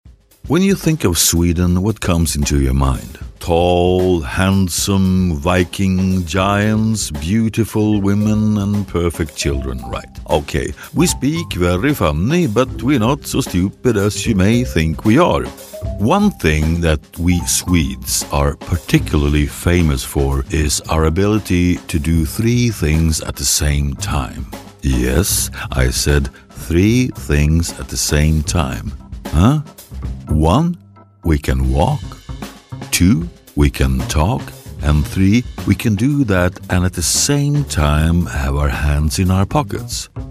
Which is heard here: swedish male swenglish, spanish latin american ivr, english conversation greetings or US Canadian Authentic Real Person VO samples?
swedish male swenglish